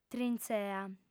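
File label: "trintzea", lingua sarda, female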